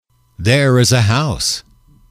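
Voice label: "there is a house", English, male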